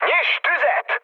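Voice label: attack position, mood med, Hungarian; War Thunder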